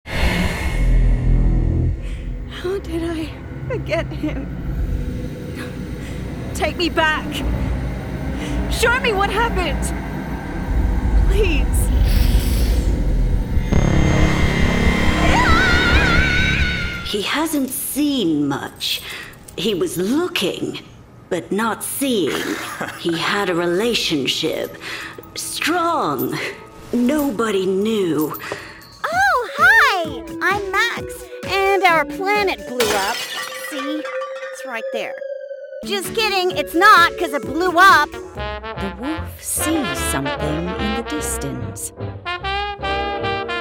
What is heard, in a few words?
english australian animation